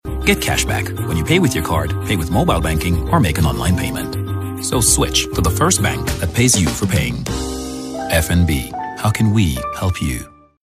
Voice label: Corporate